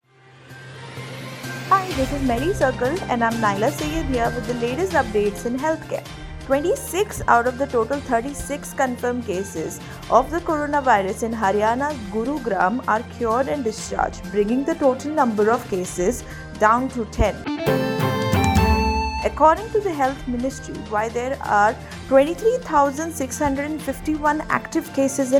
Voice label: english news